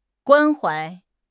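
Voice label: "关怀", Mandarin Chinese; HSK6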